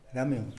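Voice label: "gamion", Tlacochahuaya Zapotec; type of owl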